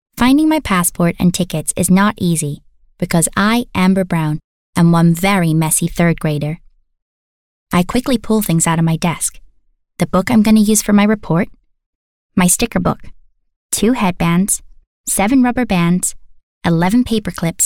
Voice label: Narrative US accent; Amber Brown

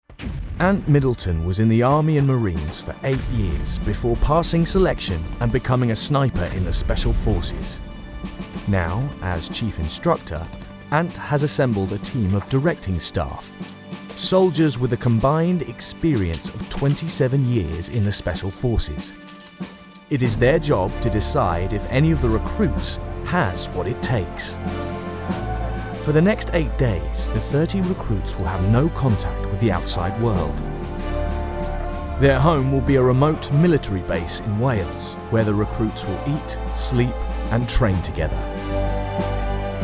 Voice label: Narrative; SAS

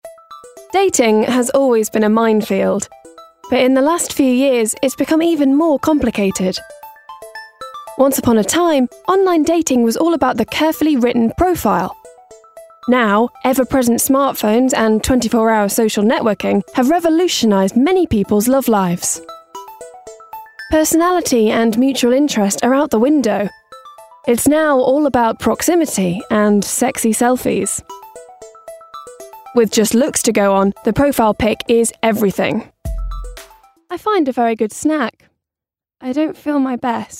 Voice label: Narrative

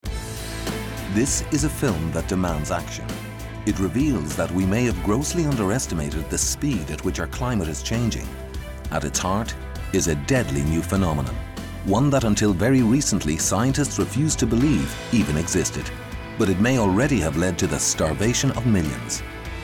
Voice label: Narration Documentary

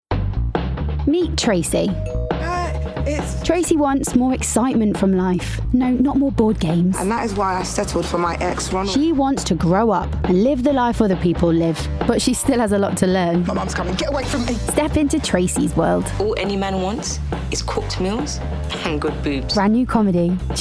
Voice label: PROMO Chewing Gum

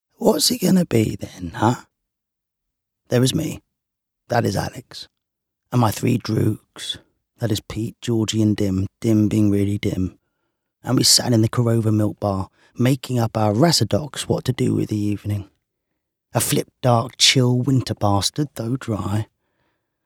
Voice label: AUDIOBOOK; A Clockwork Orange

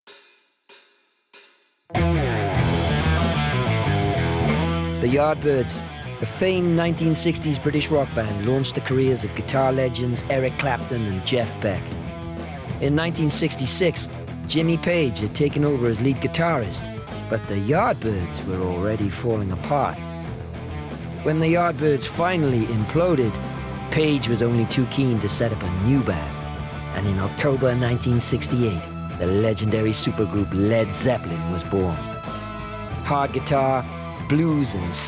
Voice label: narrative reel

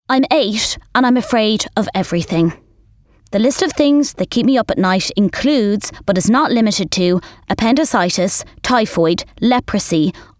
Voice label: MONOLOGUE; Girl 8

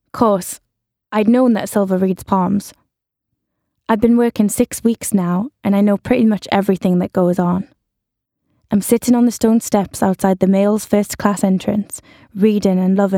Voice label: AUDIOBOOK; The Drowning of Arthur Braxton

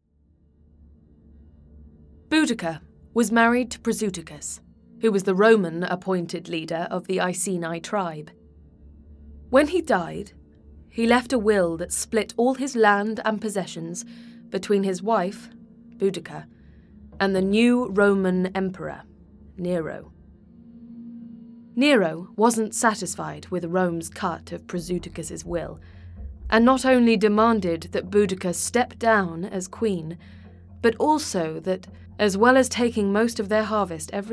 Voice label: Documentary

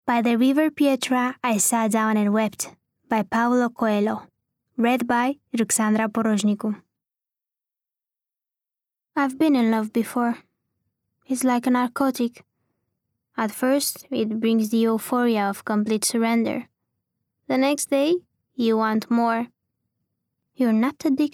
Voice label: Bookread

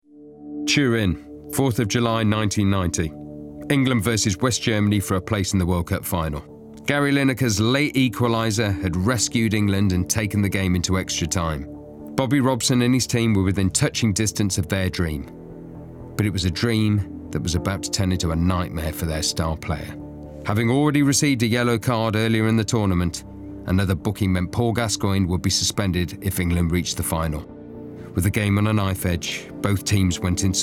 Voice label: narrative

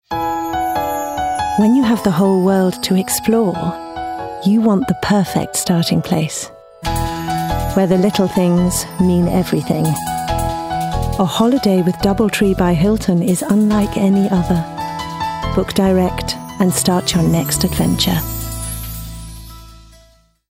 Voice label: COMMERCIAL; Hilton

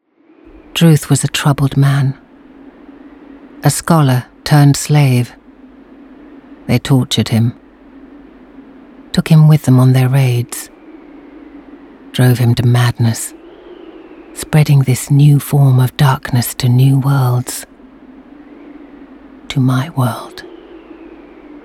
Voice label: Reel Game Narration

